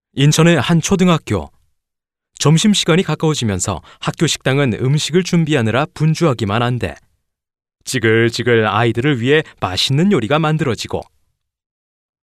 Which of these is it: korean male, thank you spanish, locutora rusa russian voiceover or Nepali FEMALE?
korean male